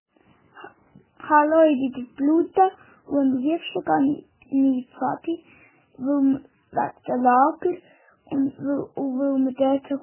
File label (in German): Sprachnachricht